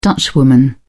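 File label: "dutchwoman", British English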